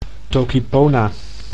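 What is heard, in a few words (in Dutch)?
"Toki Pona", Dutch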